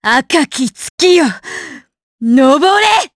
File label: Vox Skill Madness, jp; Xerah; kingsraid